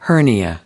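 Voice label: "hernia", American English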